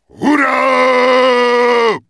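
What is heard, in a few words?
Grunt Yes Attack, Czech; wowpreklad; czwow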